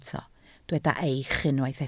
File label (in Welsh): "eich" Welsh